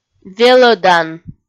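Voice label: "велӧдан", Komi-Zyrian, female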